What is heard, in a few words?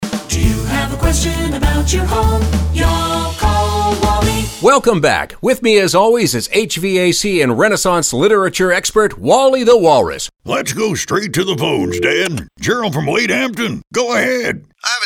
radio ads; Two Part Question